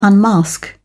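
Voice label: "unmask", English, British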